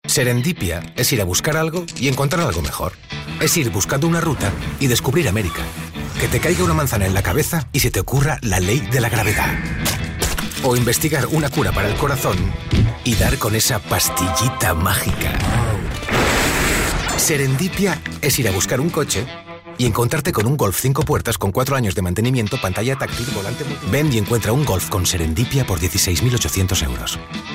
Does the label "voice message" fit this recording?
No